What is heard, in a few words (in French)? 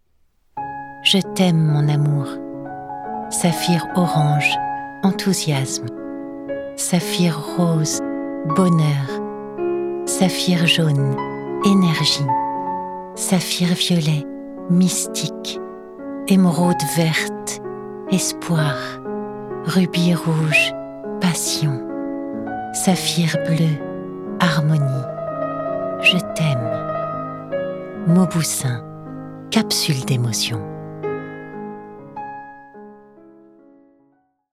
Voix off pub; Mauboussin